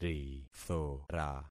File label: "rithora", concat syllables; Affixing experiment